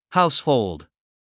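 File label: "household", English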